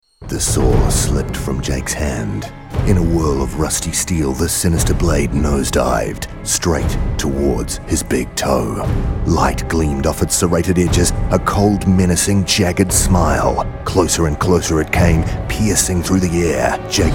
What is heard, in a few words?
seatbelt radio; big toe